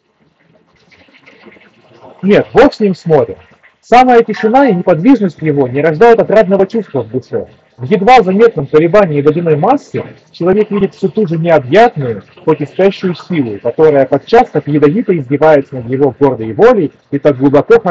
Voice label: Mic noise; Запись с микрофона гарнитуры; soundcore sleep a30